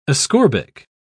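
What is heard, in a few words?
"ascorbic", British English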